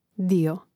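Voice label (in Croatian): "dio", Hrvatski; mreznik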